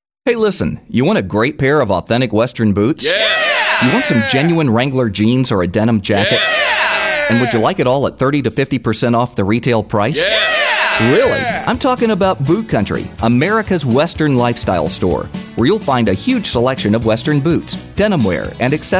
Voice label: Voiceover